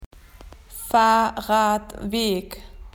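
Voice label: "bicycle path", Australian English; PictureDictionary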